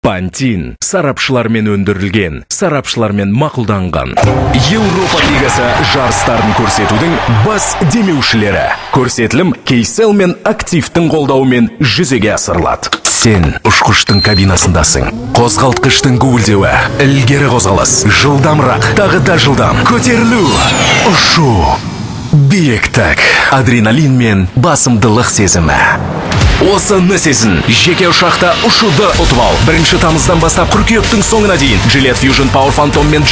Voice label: MALE TXT KZ